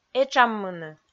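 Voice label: "этшаммыны", Komi, female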